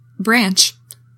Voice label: "branch", english; 3000 words